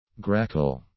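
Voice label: "grackle", English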